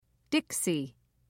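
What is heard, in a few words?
"dixie", British English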